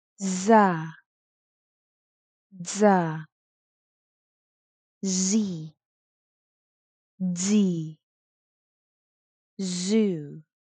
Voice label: Minimal Pairs z dz